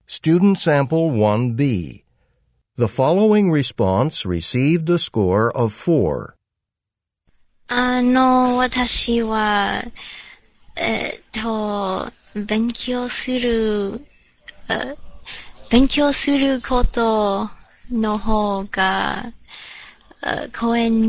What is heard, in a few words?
japanese convo; Conversation 1 Sample B (Score of 3)